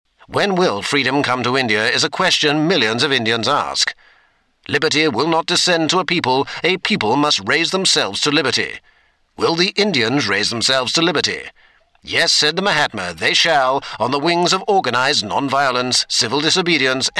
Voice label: pathe english